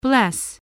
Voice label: "bless", spelling

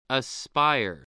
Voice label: "aspire", English

